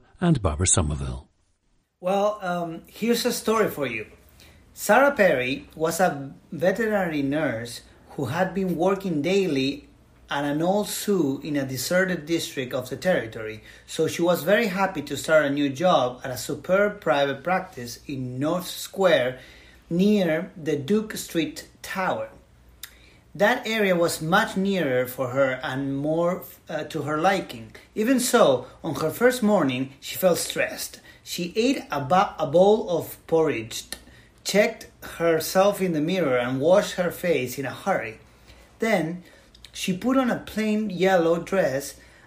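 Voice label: uruguay, dialects of English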